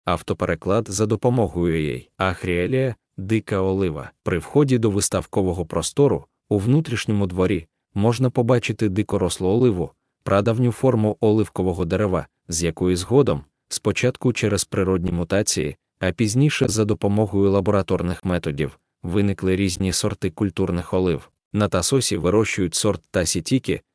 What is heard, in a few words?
ukrainian; Agrielia (wild olive)